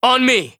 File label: VO en